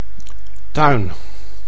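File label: "tuin", Dutch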